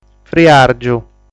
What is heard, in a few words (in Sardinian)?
"Friàrgiu", sardu